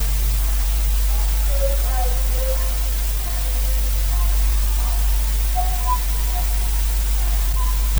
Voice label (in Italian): Risalto della voce e delle urla